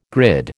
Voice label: "grid", American